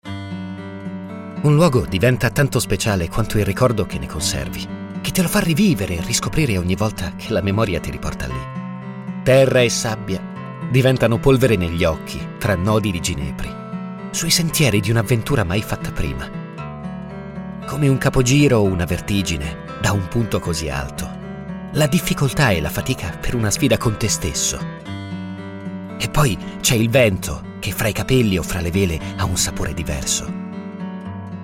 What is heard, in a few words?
italian narration